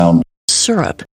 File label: Sound Syrup pieces male female